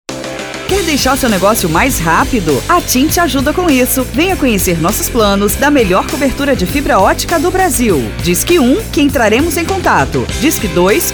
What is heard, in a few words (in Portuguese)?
ESPERA, espera telefonica; TIM ULTRA FIBRA